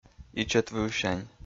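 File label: "ичӧтвывсянь", Komi, male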